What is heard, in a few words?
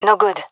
negative, gendarmerie fem epic; vo; agents